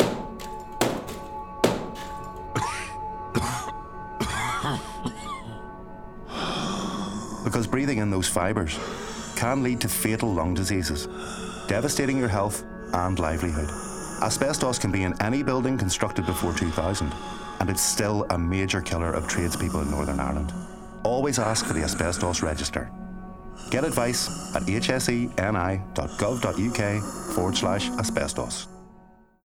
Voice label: radio advert tradesperson